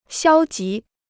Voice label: "消极", Chinese

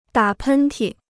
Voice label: "打喷嚏", Chinese

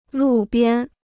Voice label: "路边", Chinese

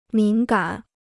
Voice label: "敏感", Chinese

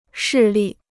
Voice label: "势力", Chinese

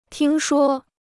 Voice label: "听说", Chinese

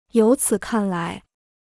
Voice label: "由此看来", Chinese

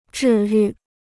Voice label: "治愈", Chinese

